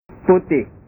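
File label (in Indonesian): "putih", Indonesian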